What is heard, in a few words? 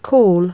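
"call", English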